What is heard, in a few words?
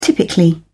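"typically", British English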